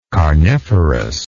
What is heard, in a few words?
"carniferous", English